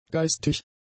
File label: "geistig", German; adjectives